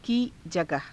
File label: "kii jagaha", Hindi